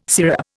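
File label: "syrup", Indian English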